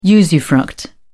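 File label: "usufruct", British English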